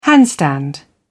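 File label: "handstand", British English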